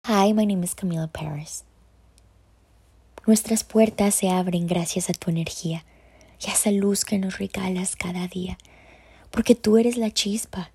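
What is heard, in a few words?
SPANISH, voiceover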